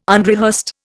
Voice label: "unrehearsed", Indian

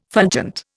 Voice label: "fulgent", Indian

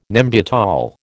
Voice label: "Nembutal", American

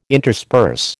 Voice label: "intersperse", American

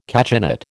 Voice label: "cachinnate", American

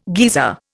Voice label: "geezer", Indian

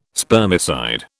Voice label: "spermicide", British

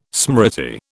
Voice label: "smriti", British

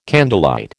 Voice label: "candlelight", American

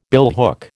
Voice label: "billhook", American

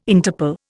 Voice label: "Interple", Indian

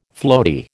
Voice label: "floaty", American

